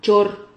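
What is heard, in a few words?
"chor", Spanish syllable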